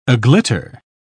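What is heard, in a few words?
"aglitter", British English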